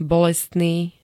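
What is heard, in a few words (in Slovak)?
"bolestný", Slovak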